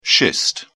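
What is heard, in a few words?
"schist", British English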